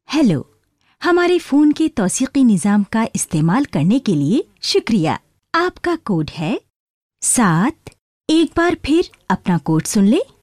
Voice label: ivr urdu

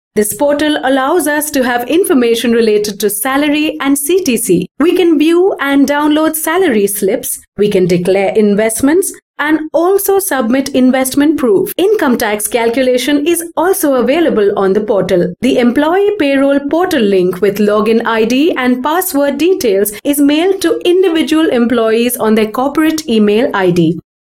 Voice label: English CORPORATE TRAINING Narration

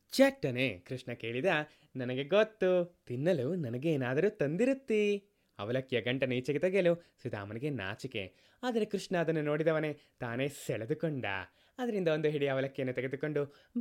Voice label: kannada

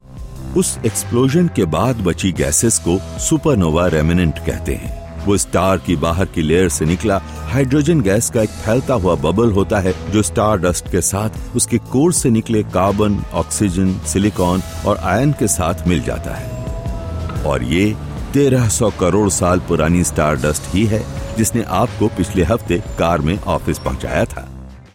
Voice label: NARRATION HINDI

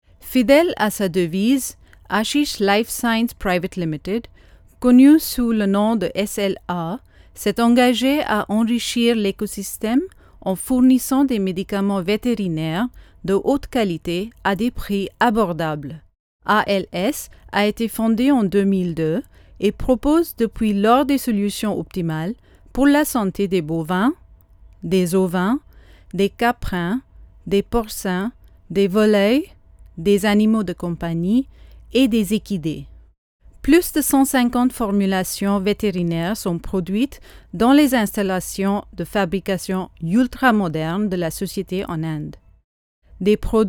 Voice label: French

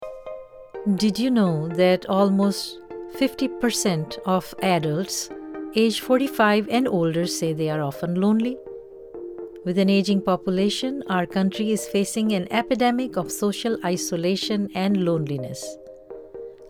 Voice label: Medical narration, English

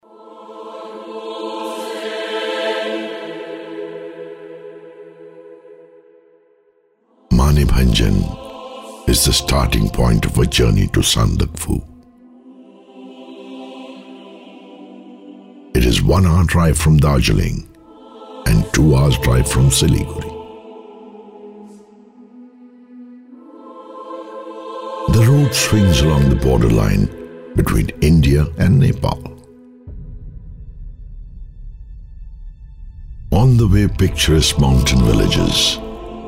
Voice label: docu eng

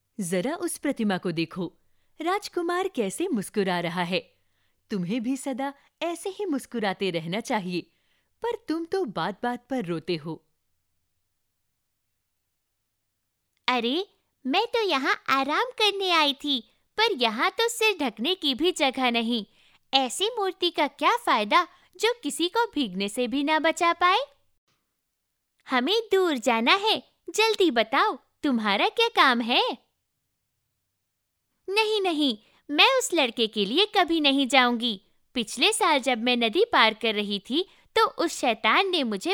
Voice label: E learning, Hindi

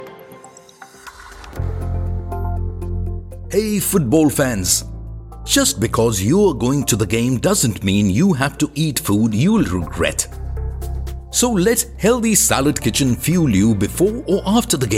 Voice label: PROMO BRITISH ENGLISH

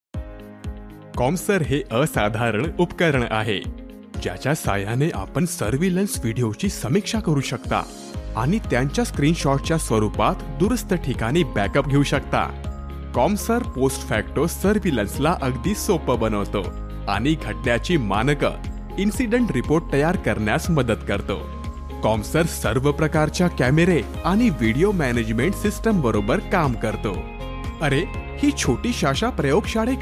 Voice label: EXPLAINER MARATHI

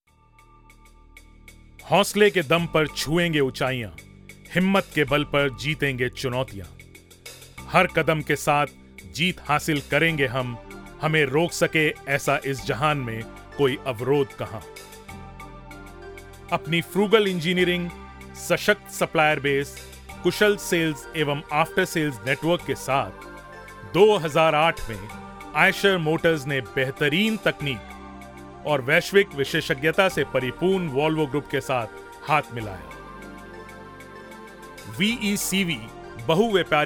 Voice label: Hindi

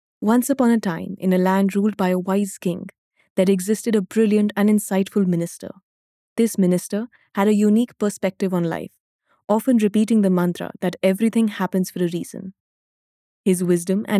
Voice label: story narration english

